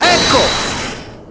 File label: "Ecco", Italian; Edgeworth